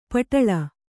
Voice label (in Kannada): "ಪಟ್ಟಲು", Kannada